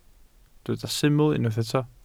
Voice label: "syml" Welsh

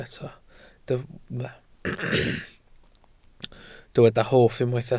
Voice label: "hoff", Welsh

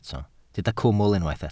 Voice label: "cwmwl" Welsh

